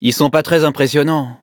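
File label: battle, French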